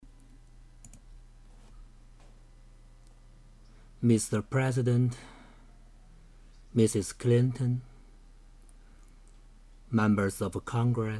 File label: eng speech